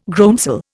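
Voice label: "groundsel", Indian English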